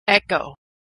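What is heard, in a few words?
"echo", American English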